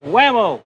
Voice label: "whammo", voice; ooh ahh